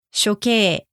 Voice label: "shokei", Japanese; execution

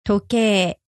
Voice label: "tokei", Japanese

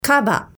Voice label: "kaba", Japanese

